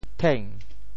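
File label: "theng2", Teochew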